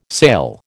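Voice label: "sale", American English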